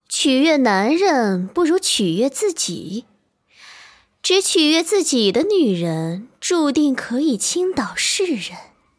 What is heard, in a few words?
girl; shaoxiadiablo